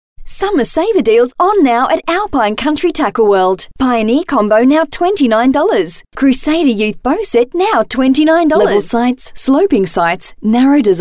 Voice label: Hard Sell